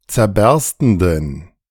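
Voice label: "zerberstenden", German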